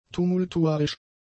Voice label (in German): "tumultuarisch", German; adjectives